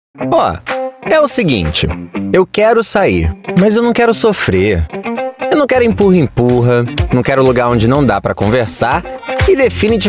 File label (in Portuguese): Brazilian Portuguese; Natura Manifesto